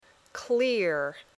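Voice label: "clear", English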